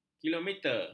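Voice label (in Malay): "kilometer", Malay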